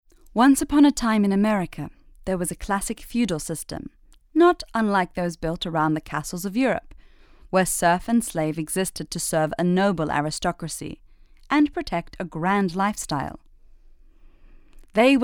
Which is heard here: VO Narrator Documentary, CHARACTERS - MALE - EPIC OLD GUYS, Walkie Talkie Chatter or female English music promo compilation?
VO Narrator Documentary